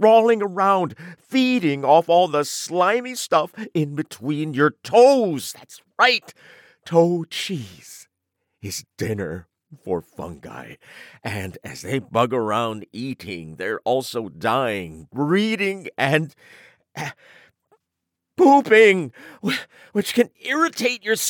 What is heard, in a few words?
Fungus Narration